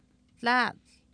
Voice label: "tlAaatl", Oapan, female; Oapan minimal pairs 1998

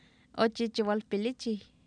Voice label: "oochiichiwalpilichiw", Oapan, female; Oapan minimal pairs 1998

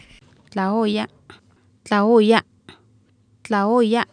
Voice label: "tlaOoyA maatli", Oapan, female; Oapan minimal pairs 1998

